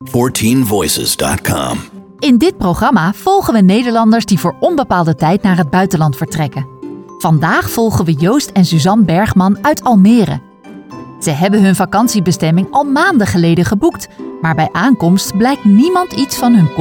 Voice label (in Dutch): Narratief; 14Voices